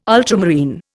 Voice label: "ultramarine", Indian